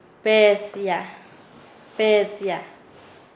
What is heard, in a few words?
"regalar", Nasa Yuwe; paez